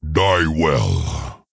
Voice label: Die Well, Maghar Orc Military Guard, M